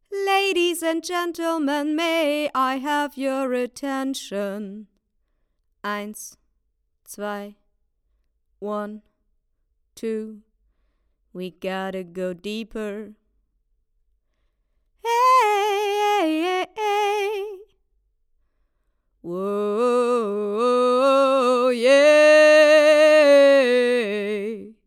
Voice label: akg c 414 xl ii, Gesangsmikrofon; Grossmembran